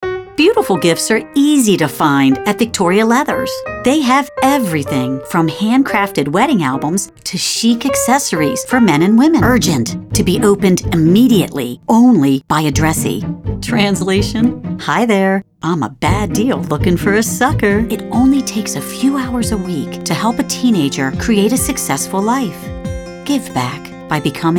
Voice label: Commercial